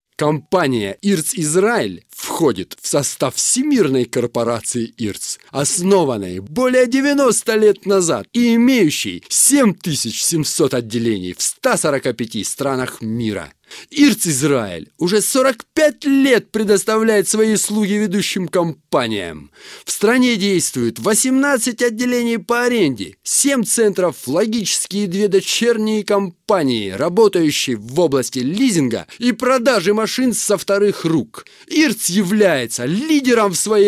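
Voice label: Russian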